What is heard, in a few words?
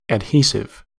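"adhesive", US English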